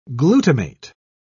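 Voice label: "glutamate", English, British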